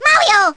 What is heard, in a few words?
baby "mario"; baby luigi